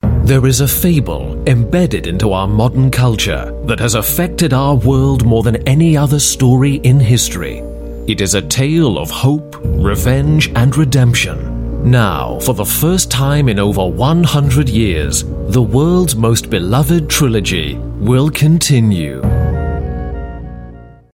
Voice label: UK Epic Trailer Voice UK English M; Male